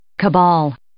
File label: "cabal", English